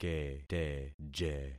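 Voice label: "keteje", concatenated syllables; Affixing experiment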